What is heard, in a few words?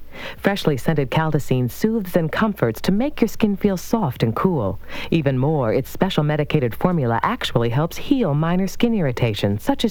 commercial caldasene baby powder; Bluegrass